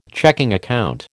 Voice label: "checking account", American